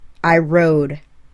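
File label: "I rode", English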